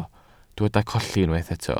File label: "colli", Welsh